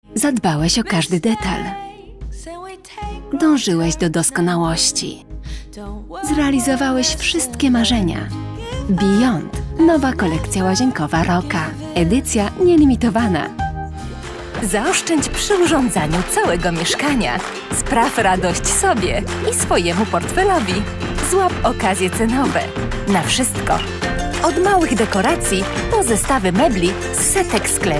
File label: Polish